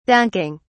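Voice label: "dunking", British English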